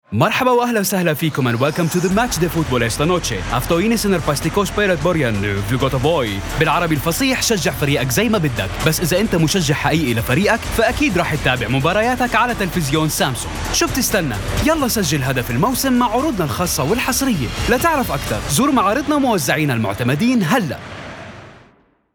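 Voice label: Arabic Jordan Accent; GoLocalise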